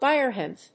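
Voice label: "biorhythm", English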